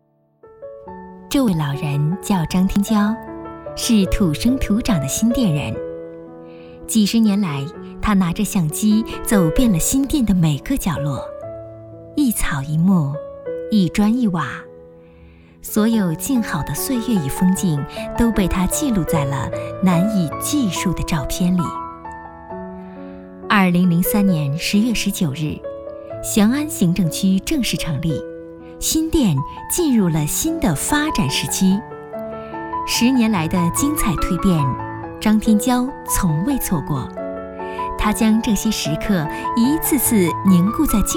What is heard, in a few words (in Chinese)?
女国 专题 人物纪录片 沉稳